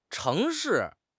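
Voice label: "cheng2 shi4", Mandarin Chinese; HSK3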